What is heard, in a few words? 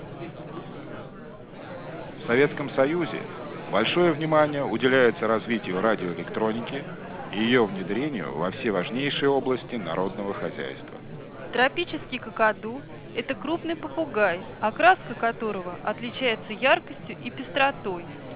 fspeech babble +12dB